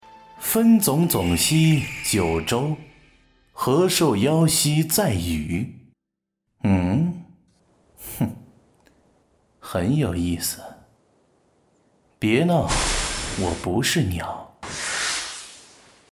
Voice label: 游戏 帅气男

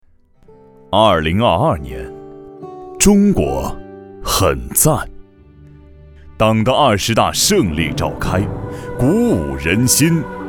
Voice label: 男 专题 庄严 大气; 忠诚护航

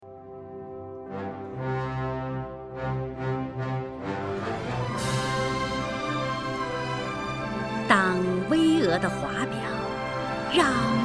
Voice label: 女 大气雄浑, 国语女声配音, 课件解说; 国庆天安门

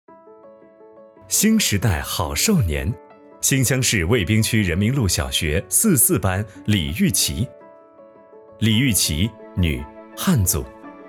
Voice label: 男 人物介绍; 四四班

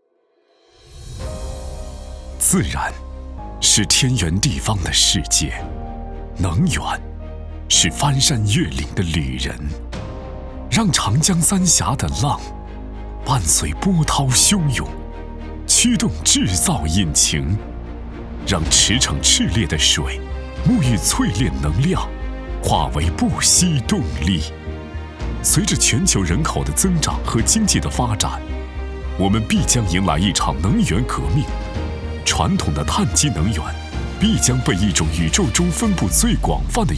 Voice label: 男,大气偏节奏感,片头

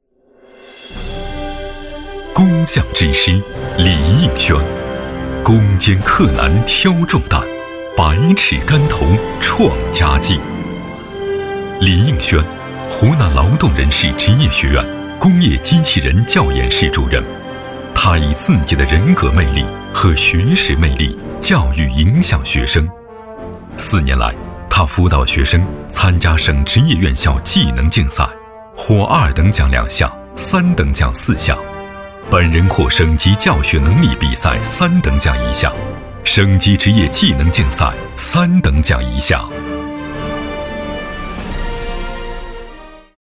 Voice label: 男 专题 人物 片 大气浑厚

